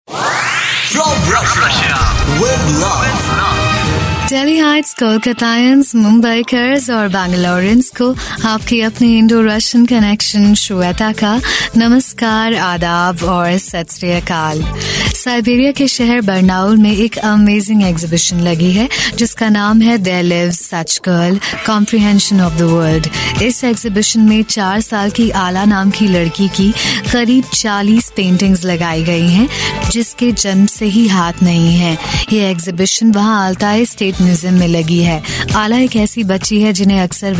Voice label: Hindi, Female; India; From Russia With Love